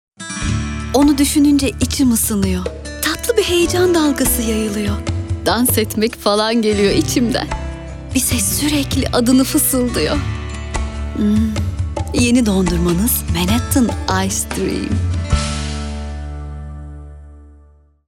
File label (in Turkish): sicak sakin, tanitim